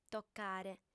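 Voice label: "tocare", Sardinian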